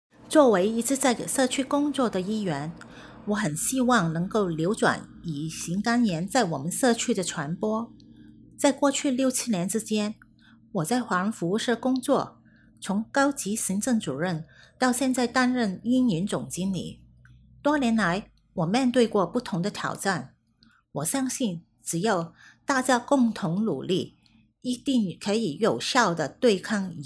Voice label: Chinese; hepatitis